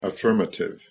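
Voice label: "affirmative", English pronunciation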